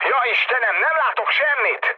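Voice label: Hungarian searchlite mood med, speech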